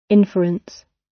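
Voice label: "inference" British; Flora and fauna, agriculture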